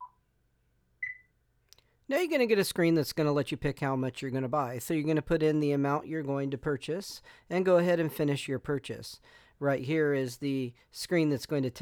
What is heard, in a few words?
Voiceover; Setting up a solana wallet